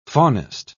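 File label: "faunist", British English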